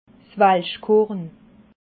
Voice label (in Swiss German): "walschkorn", alsace